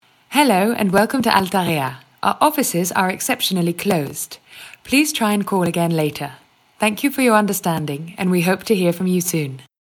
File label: Repondeur EN Fermeture Exceptionnelle; ALTAREA